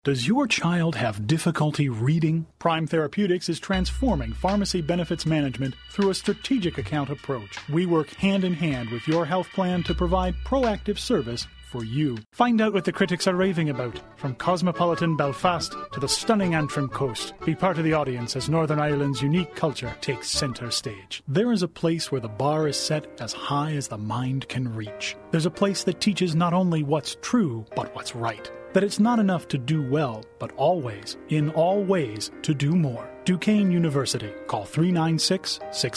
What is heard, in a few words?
Commerical Spot